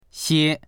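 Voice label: "xie1", Mandarin Chinese; 些; いくらかの（不定の量）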